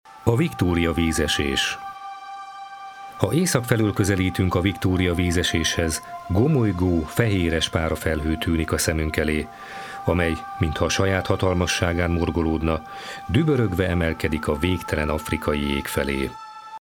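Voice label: Hungarian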